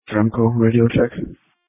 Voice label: Police Tactical Encrypted